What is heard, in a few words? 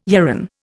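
"yarran", Indian English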